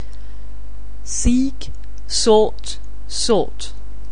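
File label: "seek", English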